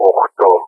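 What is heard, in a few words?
"8", spoken; Ka-50; Wingman; Digits; 8-continue; Speech; DCS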